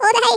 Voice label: "incoming", Norwegian; Worms Armageddon

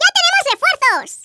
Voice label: "drop", Hispanic; Worms Armageddon

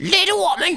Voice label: "come on then", Danish; Worms Armageddon; Danish

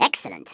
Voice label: "EXCELLENT", Stiff Upper Lip

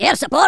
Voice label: "Orders", Angry Scots; Worms Armageddon

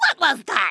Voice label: "missed", Kamikaze; Worms Armageddon

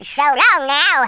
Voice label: "bye bye", wacky speech

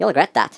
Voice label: "you'll regret that", Irish; Worms Armageddon

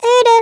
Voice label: BORING, Speech German; Worms Armageddon

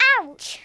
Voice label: ouch, Kidz, Speech; Worms Armageddon

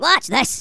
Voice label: "WATCH THIS", Angry Scots; Worms Armageddon

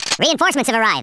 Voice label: "collect", US Sports, speech

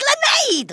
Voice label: "GRENADE", Kamikaze; Worms Armageddon

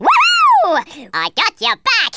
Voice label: "revenge", wacky speech

